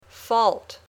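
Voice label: "fault", English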